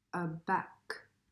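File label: "A back", English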